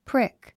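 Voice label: "prick", English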